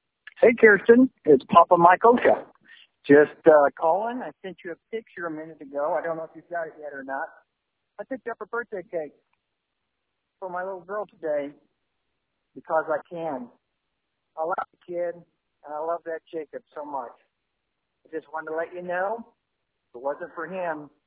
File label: voicemail